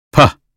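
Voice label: American phonetics sound pen; 英语音标发音（剑桥版）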